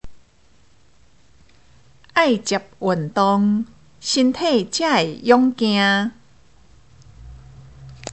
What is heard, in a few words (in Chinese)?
(閩); 生活常規